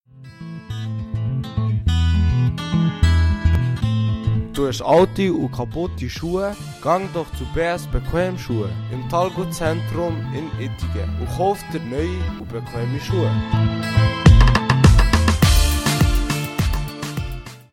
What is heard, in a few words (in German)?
Jingle; Bolligen